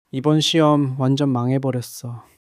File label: sadness